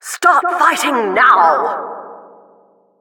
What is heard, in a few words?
announcer round start